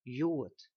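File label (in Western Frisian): "hjoed", Frisian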